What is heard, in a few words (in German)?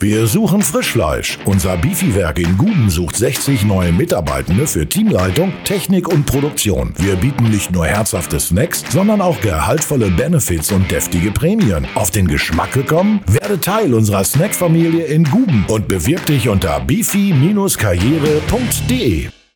radiospot; bifi karriere